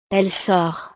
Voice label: "elle sort", frances

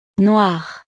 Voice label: "noire", frances

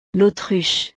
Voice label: "l'autruche", frances; la avestruz

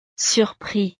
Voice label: "surpris", frances; estados animo

